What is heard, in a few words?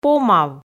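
"помав" Komi, female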